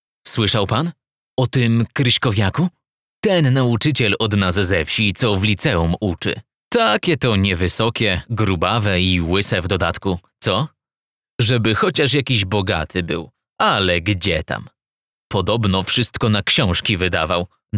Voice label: audiobook